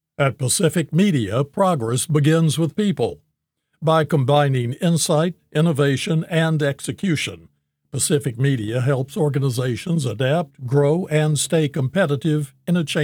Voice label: Corporate Narration